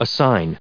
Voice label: "assignor", us